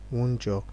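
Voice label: "wunjure", Nigerian Pidgin, male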